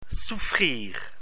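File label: "souffrir", French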